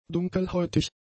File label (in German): "dunkelhäutig", German; adjectives